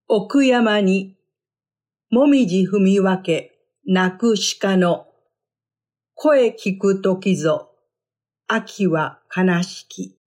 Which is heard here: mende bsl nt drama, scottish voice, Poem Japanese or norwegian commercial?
Poem Japanese